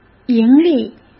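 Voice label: "盈利", Mandarin Chinese; hsk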